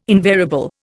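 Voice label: "invariable", Indian English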